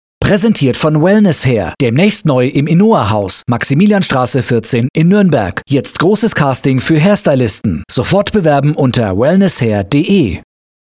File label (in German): Verkehr Casting; Wellnesshair